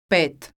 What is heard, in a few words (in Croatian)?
"pet", Croatian